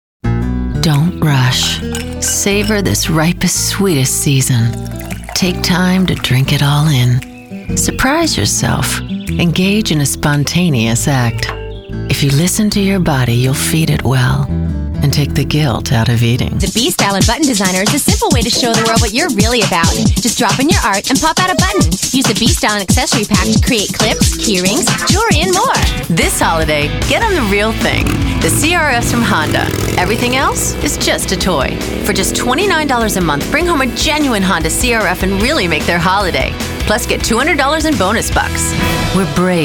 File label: commercial